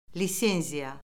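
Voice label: "lisenziya", Azerbaijani; Orfoqrafiya Lüğəti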